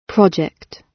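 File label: "project", British English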